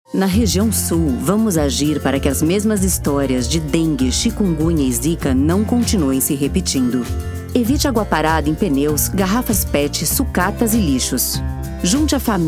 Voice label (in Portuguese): Spot Campanha de Combate ao Mosquito Sul